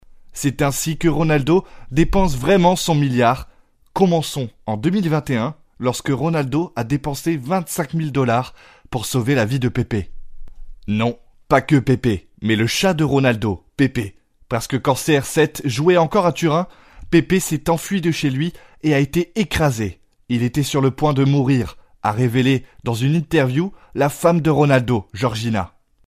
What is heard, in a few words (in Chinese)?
法语男声